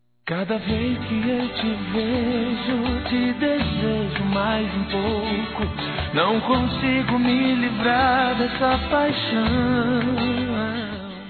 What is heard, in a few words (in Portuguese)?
Aniversário Sertanejo Romantico Masculino